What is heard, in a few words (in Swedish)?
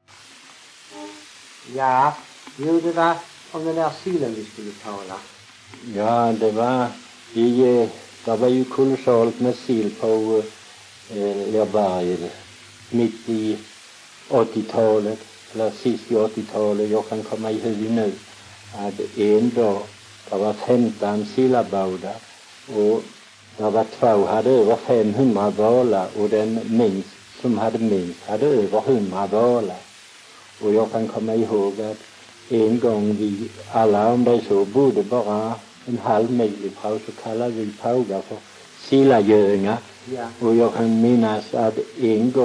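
Väsby Skåne, dialekter; Folkminnen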